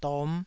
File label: "dom5", taiwanese syllable